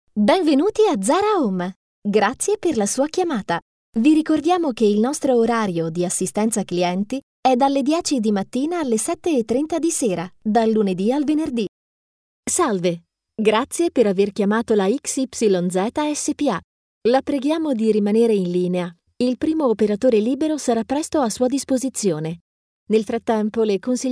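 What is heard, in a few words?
Italian female voice over, upbeat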